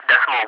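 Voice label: "point", English, ATC; Digits; DCS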